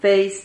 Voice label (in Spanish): "feis", Silabas